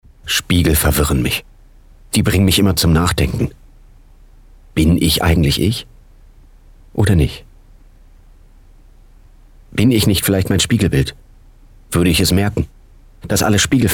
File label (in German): Innerer Monolog